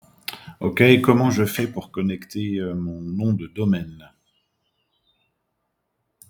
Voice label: voice message